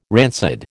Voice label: "rancid", American

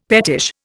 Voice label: "pettish", Indian; Dictionary

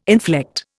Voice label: "inflect", Indian

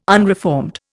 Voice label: "unreformed", Indian

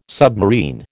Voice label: "submarine", American

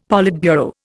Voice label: "politburo", Indian; Dictionary

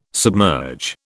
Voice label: "submerge", British

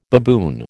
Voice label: "baboon", American

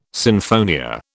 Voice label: "sinfonia", British

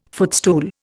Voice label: "footstool", Indian

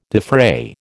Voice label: "defray", American

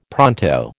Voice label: "pronto", American

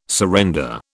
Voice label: "surrender", British

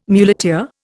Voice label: "muleteer", Indian

